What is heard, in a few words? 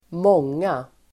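"många", Swedish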